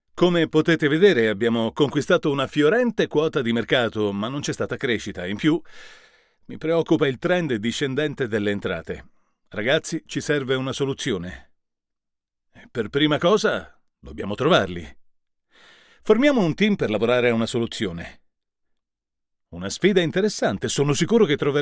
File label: eLearning director in meeting